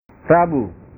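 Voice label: "rabu", Indonesian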